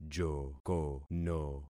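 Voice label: "jokono", concatenated syllables; Affixing experiment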